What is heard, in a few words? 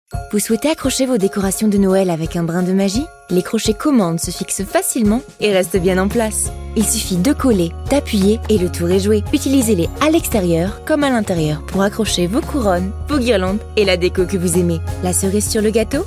Commercial